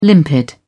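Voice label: "limpid", British English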